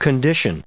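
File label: "condition", US English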